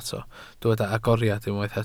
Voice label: "agoriad", Welsh

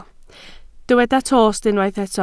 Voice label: "tost", Welsh

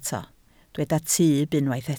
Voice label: "tyb", Welsh

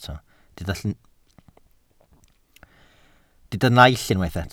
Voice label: "naill", welsh

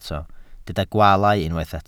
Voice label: "gwaalau", welsh

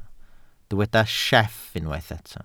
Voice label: "sieff", Welsh

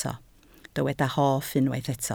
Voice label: "hoff", Welsh

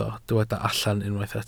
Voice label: "allan", Welsh

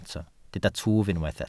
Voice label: "twf", Welsh

